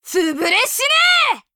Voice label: jp voice; gungnir

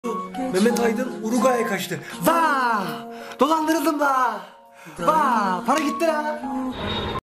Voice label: deep turkish vaaaaaaaaaaaaaa dolandirildim laa; deep turkish web

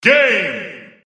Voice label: announcer game; ssb

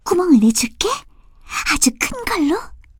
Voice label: kr voice; gungnir

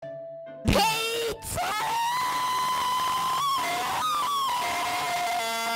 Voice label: annoying kid; roblox

